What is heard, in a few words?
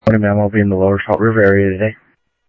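Lakes Sheriff Lake Patrol, scanner audio trunk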